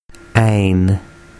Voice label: "ain", Urdu